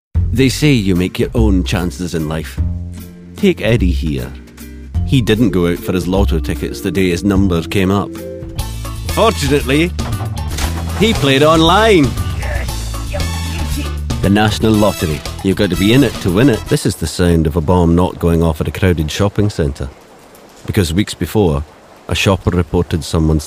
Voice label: Voiceover Commercials